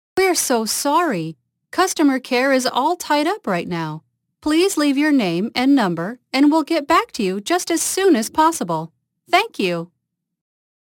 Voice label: voice female